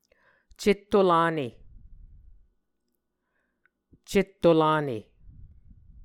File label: "cetto-lane", muscogee